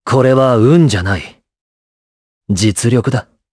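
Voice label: Vox Japanese; Esker; kingsraid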